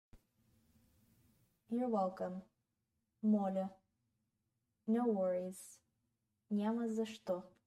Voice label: You're welcome No worries, Bulgarian; the talking bulgarian phrasebook